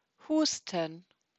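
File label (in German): "husten", German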